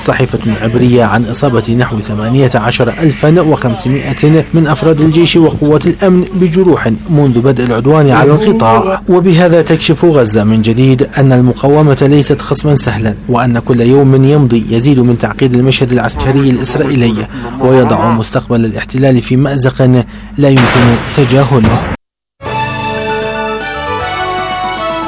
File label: Arabic